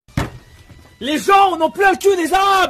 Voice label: rage; sardoche